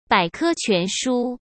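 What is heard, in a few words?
"百科全书", Mandarin Chinese; hsk; chinese-flashcards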